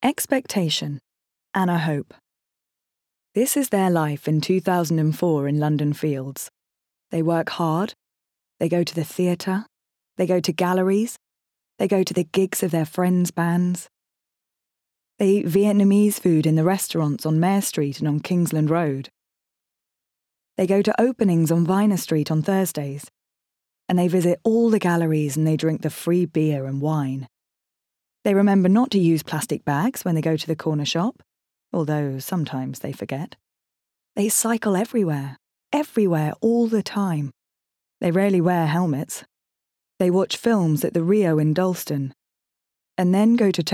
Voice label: female English audiobook narration

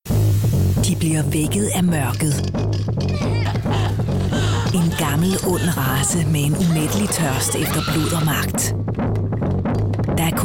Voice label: female danish promo

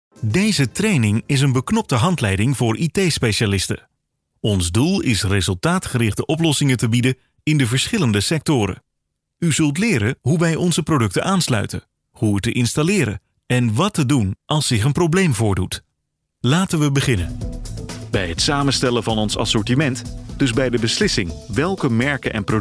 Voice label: male dutch e-learning compilation

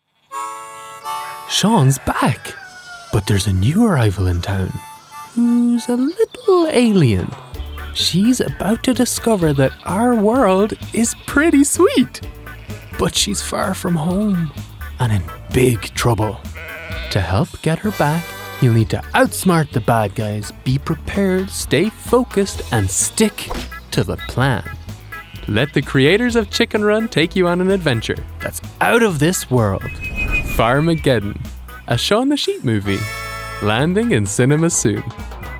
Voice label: non binary and male, Irish accent, commercial; irish northern

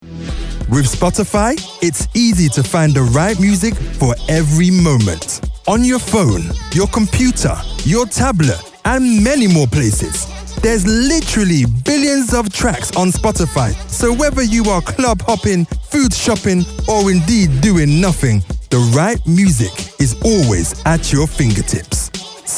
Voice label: male english london accent commercial

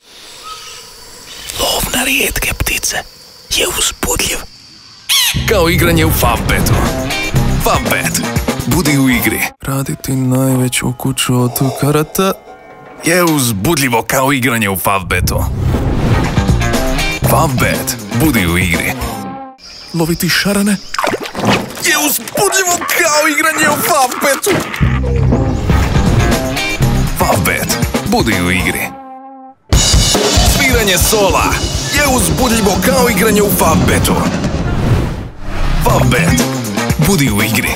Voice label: male croatian commercial compilation; favbet

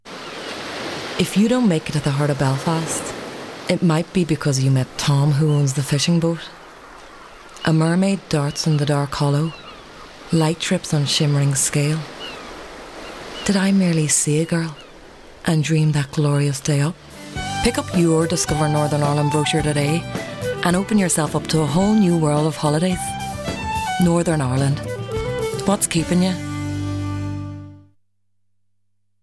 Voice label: female english irish northern irish accent; commercial; discover northern ireland; Devine Voices

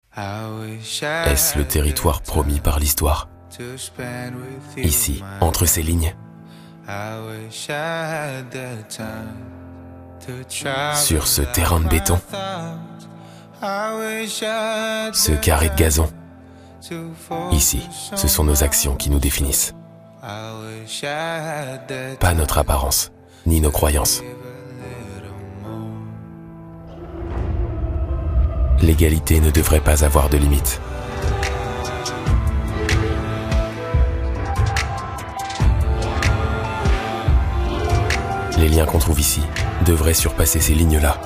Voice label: male French commercial; nike

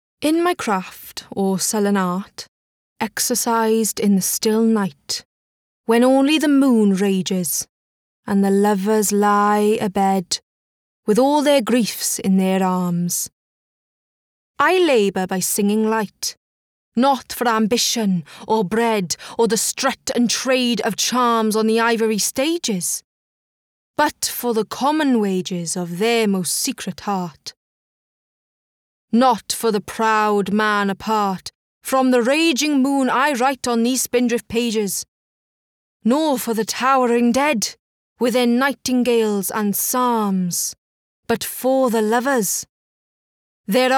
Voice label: female English Welsh multiple accents compilation

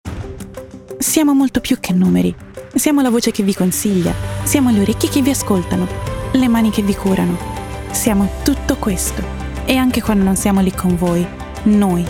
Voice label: female Italian commercial compilation

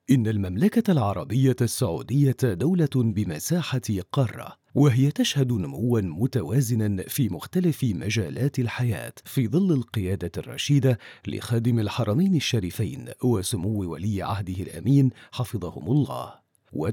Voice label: male arabic narration

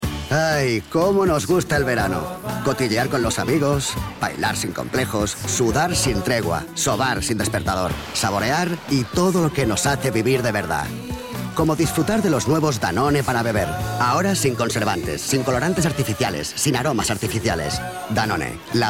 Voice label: male catalan commercial; danone

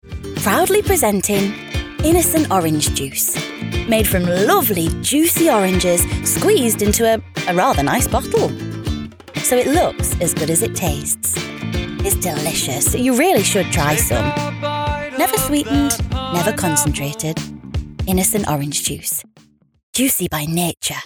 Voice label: female english northern accent commercial; english northern accents; innocent

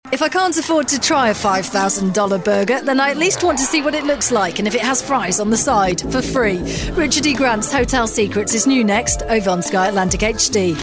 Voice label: female english continuity; voices; sky atlantic hd

